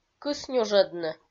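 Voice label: "кыс нюжӧдны", Komi, female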